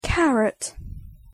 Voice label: "carrot", English